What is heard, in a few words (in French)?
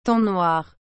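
"temps noir", French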